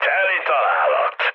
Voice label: bomb success, mood med, speech hun; War Thunder

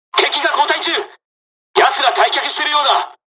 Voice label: dialog, dialogs japanese; War Thunder

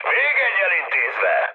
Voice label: player killed enemy, mood high, speech hun

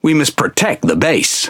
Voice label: Speech Quick Commands Ally Base, EN GB; World Of Warships

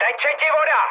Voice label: damaged water, speech cze, mood high; War Thunder

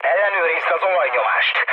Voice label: damaged oil, speech hun; War Thunder

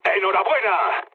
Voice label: player killed enemy, mood low, speech

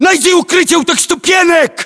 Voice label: order cover steps, ru; localized english

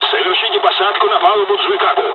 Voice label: landing, dialogs russian; War Thunder

